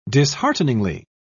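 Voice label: "dishearteningly", British English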